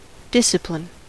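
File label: "discipline", American English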